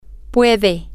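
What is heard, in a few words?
he can, Spanish, female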